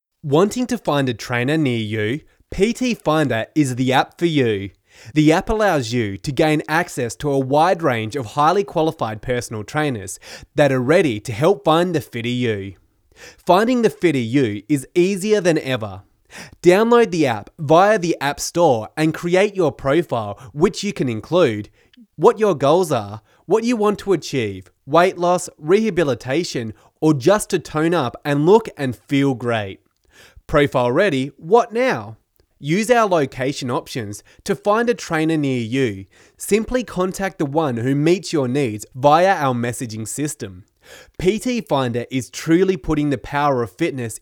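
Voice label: Male, English, Australia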